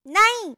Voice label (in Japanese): "ないん", 明るい声